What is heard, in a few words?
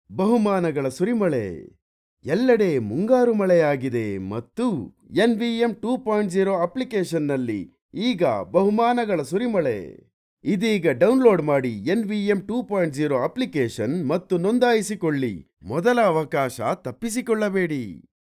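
Kannada, male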